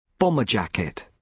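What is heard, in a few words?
"bomber jacket", British English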